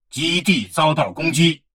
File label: advisor notification station under attack, chinese; Stellaris